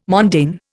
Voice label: "mondaine", Indian

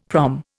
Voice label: "prom", Indian; Dictionary

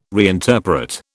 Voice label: "reinterpret", British

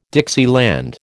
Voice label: "Dixieland", American

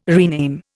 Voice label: "rename", Indian

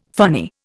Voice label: "funny", Indian

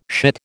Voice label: "shit", American

